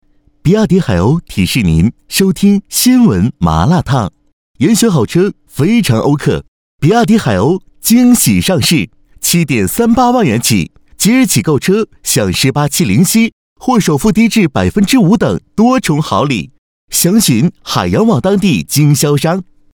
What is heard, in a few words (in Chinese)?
男 电台广告; 比亚迪海鸥2